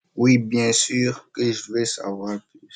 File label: voice message